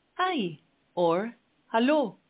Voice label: Norwegian, hello

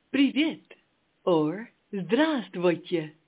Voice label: Russian, hello

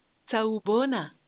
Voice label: Zulu

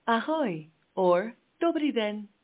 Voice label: Czech, hello

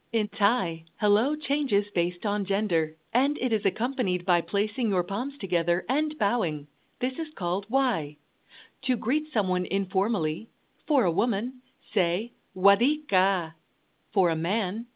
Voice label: Thai, hello

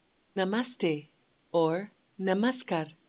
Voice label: hello, Hindi

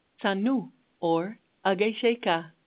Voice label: hello, Hausa

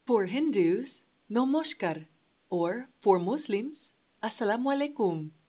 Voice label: Bengali, hello

